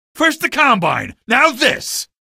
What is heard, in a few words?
alert antlions, male; video games; npc; source engine; episodic; episode 1